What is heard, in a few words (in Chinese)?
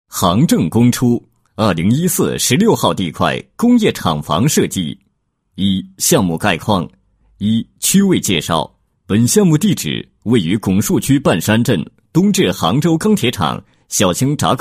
专题 厂房设计, 国语男声配音, 稳重专题; 杭政工出厂房设计